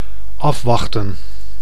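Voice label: "afwachten", Dutch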